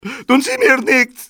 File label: dont hurt, male; XBOX